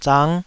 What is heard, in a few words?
"zang5", taiwanese Syllables